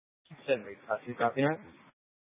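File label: police department dispatch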